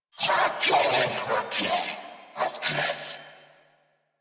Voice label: Patrol, Female; New War; Narmer Grineer

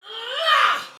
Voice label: Attack Heavy, Soldier Female, Battle; Dialog; Barks; Sinecure

